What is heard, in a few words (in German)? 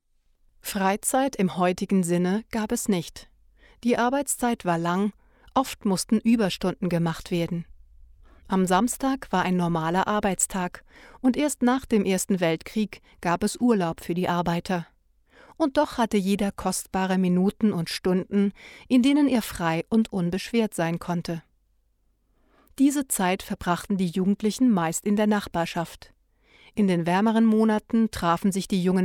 Audioguide